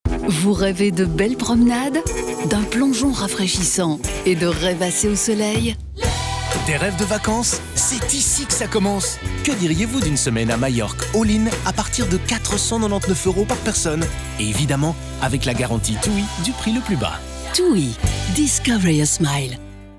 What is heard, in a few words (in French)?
Voix M